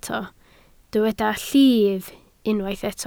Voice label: "llif", Welsh